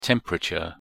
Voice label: pronunciation en temperature